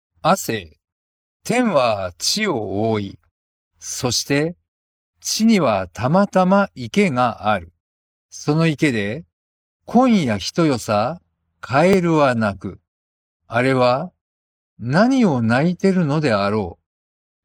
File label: Frog Voice (JPN); poetry of chuya nakahara